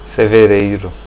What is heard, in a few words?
february, portuguese